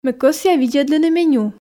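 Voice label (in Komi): ме кӧсъя видзӧдлыны меню, Komi, female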